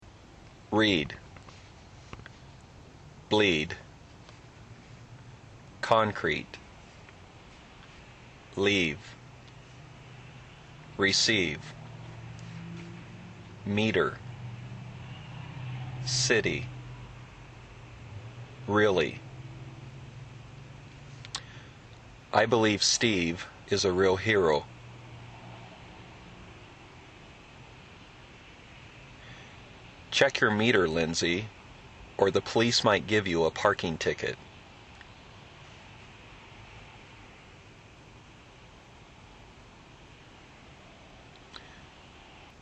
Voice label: washington practice, dialect samples, usa